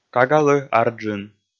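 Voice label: "кагалы ар джын", Komi, male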